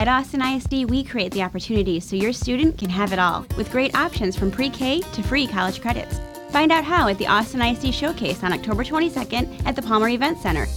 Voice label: English; Fort Worth Independent School District